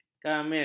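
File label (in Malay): "kamil", Malay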